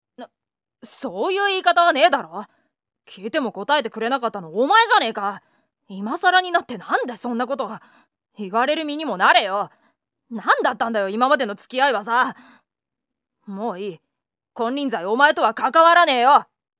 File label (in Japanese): ぷんすか男の子